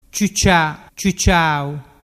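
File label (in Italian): "ciucciaau", dialect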